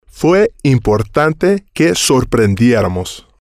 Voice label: "fue Importante Que Sorprendieramos", spanish

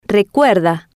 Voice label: "recuerda", Spanish

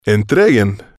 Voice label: "entreguen", spanish

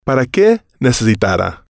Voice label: "para que necesitara", Spanish

